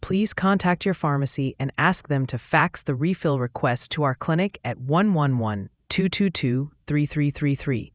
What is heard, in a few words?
question english